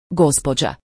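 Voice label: "gospoda" Croatian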